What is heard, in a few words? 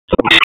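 Sheriff's Office Dispatch 1, p25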